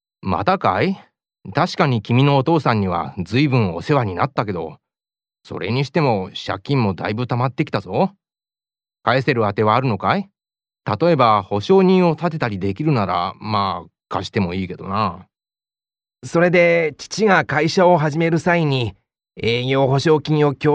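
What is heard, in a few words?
Japanese, male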